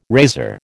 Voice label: "razor", American